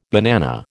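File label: "banana", American English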